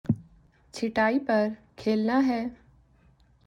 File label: Urdu